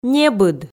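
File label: "небыд", Komi, female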